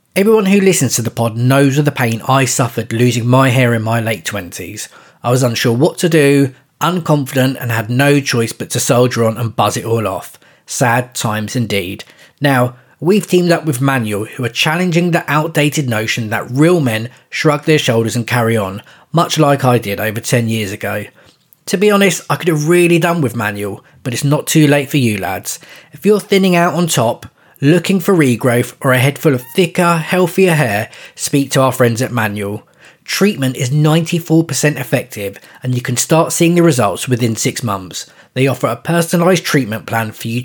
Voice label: Advert; Lads Anonymous Podcast Manual